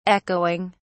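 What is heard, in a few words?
"echoing", British English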